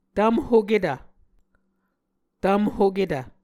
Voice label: "tvmhoketv", Muscogee

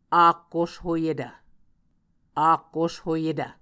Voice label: "ak oshoyetv", Muscogee

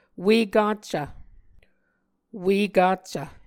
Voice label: "ue kaccv", Muscogee; Mvskoke Words with Repeated Consonants; Onvkv Ohhvyayices; A Dictionary of Creek/Muskogee; SIL International

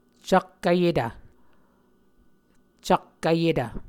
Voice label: "cak-vyetv", muscogee

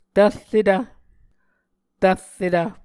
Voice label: "tvrretv", Muscogee

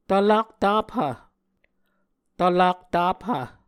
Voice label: "tvlak-taphv", muscogee

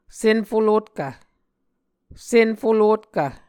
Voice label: "senfolotkv", Muscogee

